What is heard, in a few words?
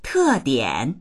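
"te4dian3", Mandarin Chinese; tèdiǎn; 特点; 特徴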